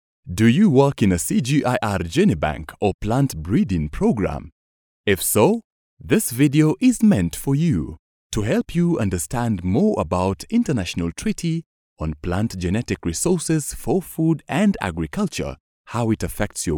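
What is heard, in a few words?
African English, male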